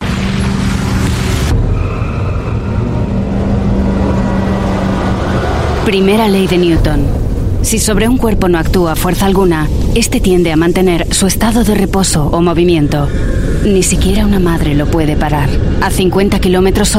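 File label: TVC European Spanish female; DGT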